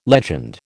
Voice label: "legend", American